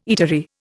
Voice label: "eatery", Indian

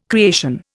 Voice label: "creation", Indian

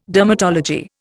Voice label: "dermatology", Indian

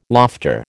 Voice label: "lofter", American

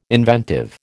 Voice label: "inventive", American

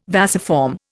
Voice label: "vasiform", Indian

